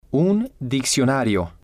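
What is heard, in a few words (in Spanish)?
un diccionario, Spanish, male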